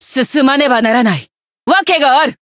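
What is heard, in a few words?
variable chant, Japanese; milla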